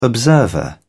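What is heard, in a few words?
"observer", British English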